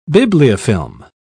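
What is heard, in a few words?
"bibliofilm", British English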